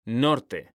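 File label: "norte", Spanish, female; mekanta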